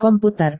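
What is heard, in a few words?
"komputer", Indonesian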